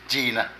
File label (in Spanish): Dulce, Zapoteco de Petapa; Moderno; Vocabulary